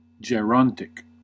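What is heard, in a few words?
"gerontic", American English; pronunciations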